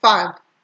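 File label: "feg", Nigerian Pidgin, female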